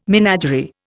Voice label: "menagerie", Indian